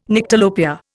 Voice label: "Nyctalopia", Indian

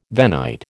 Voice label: "Venite", American

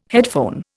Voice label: "headphone", Indian

